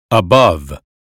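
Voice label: "above", American phonetics; 英语音标发音（剑桥版）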